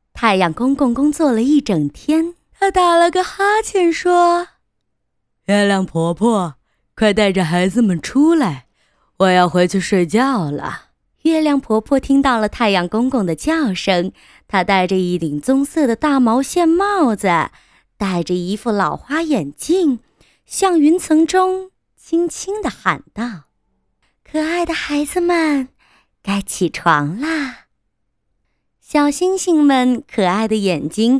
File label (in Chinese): 童声 多角色 绘本